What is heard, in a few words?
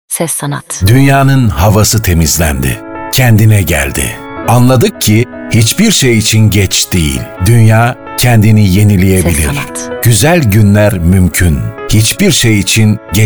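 Turkish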